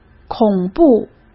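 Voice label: "恐怖", Mandarin Chinese; hsk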